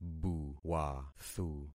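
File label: "buwathu", concatenated syllables; Affixing experiment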